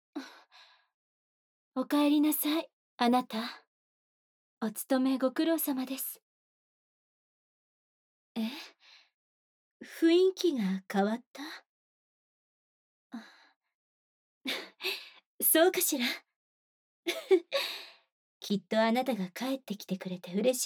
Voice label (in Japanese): ボイスオンリー, ASMR; 「お帰り…あなた。今夜って予定ある？」